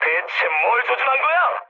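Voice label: Korean player friendly fire mood med; War Thunder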